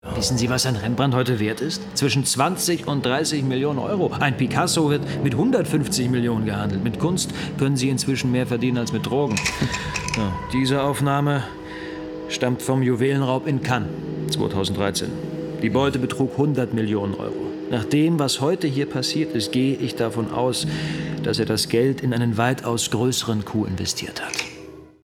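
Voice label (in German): Hörspiel; Kilroy; SWR; LOFT VOICES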